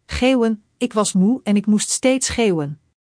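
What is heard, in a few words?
"geeuwen", Dutch; Spelling Gr4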